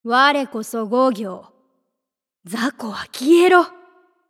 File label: voice witch, ja; ultimatecolosseum